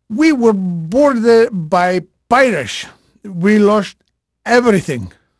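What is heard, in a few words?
Portuguese male, voice; Pirates Of The Caribbean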